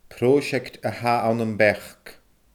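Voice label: "prooiseact a tha ann am beachd", Scottish Gaelic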